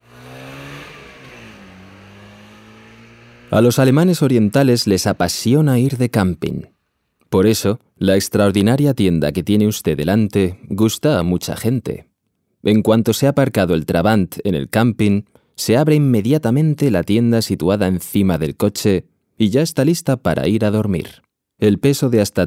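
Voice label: Spanish Neutral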